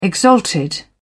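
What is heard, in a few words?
"exalted", British English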